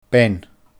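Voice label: "bean", Scottish Gaelic; handle, touch!; meddle with!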